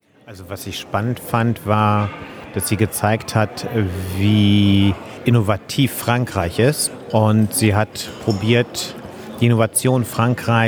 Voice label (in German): junge maenner stimme